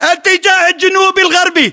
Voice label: direction relative sthwest, localized english battlechatter